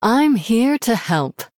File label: Female Human Greetings; en